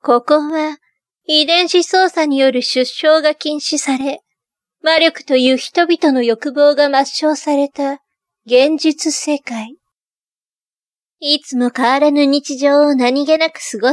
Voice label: narration